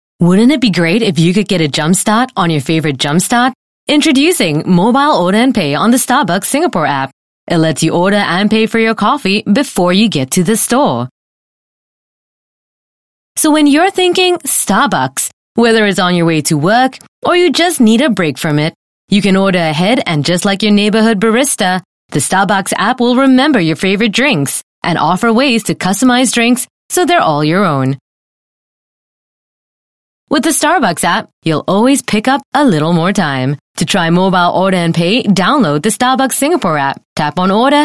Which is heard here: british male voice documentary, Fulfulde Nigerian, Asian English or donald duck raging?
Asian English